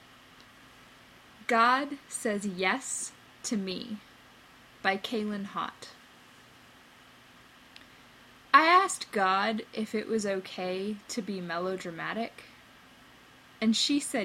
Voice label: Poem